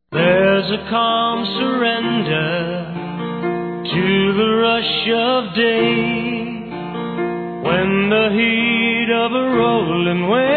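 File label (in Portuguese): Desculpas Cliente, Feminino; Telemensagens; Telemensagens 2017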